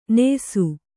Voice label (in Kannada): "ನ್ಯಾಸಿ", Kannada